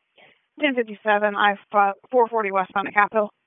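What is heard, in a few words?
Police District Dispatch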